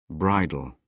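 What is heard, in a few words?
"bridle", British English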